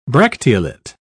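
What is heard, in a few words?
"bracteolate", English, British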